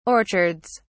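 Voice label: "orchards", English, British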